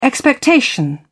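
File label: "expectation", British English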